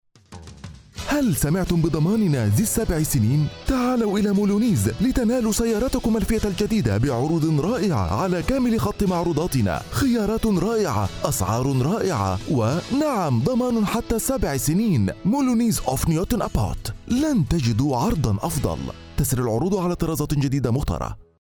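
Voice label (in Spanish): comercial, Argentina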